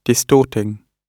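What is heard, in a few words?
"distorting", British English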